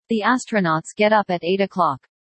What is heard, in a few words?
Zoe fast